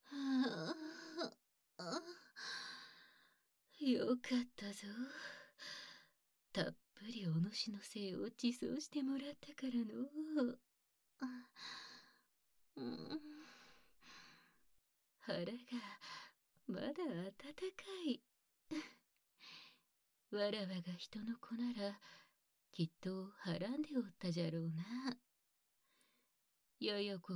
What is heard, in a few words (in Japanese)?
ピロートーク&耳かき, ASMR; 嫉妬煽り